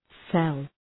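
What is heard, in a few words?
"cell", English; {sel}; Ουσιαστικό; κελί; κύτταρο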